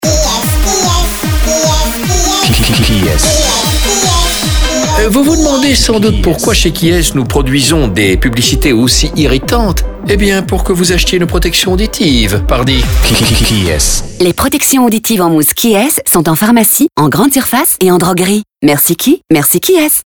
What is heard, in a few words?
Radio Pub Irriatante, FR; Quies